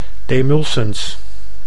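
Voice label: "demulcens", Dutch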